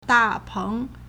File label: "da4 peng2", Chinese